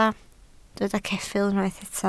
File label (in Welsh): "ceffyl" Welsh